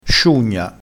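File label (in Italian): "sciugna", dialettale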